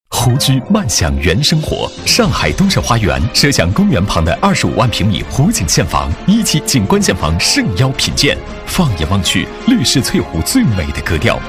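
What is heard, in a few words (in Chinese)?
男国大音-年轻-品质-激情; 房产; 广告配音; 翠湖合成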